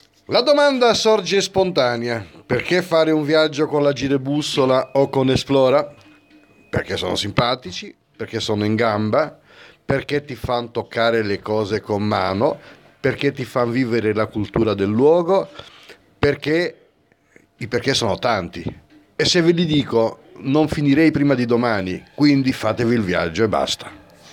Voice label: promo